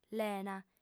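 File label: "lena", female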